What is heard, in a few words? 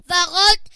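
pink, Serbian; gcompris-data; colors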